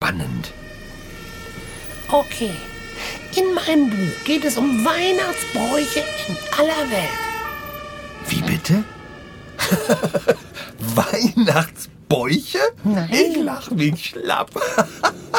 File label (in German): hoerspiel